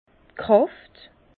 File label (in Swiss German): "kraft", Bas Rhin; intensité; vin